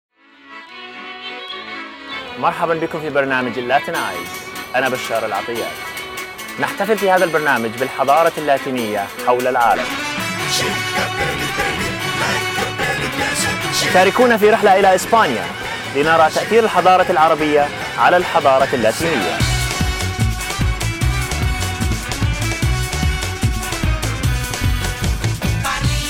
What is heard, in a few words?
TV Promo Arabic; LatinEyes; headshots demo vo